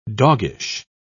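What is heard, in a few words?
"doggish", British English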